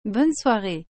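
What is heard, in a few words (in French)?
"Bonne soirée", French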